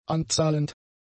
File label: "anzahlend", German; adjectives